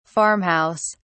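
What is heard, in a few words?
"farm house", British English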